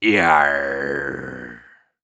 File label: Human Pirate Say Yarr